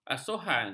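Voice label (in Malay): "asuhan", Malay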